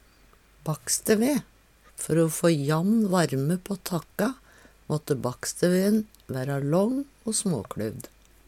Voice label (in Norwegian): bakksteve, Numedalsmål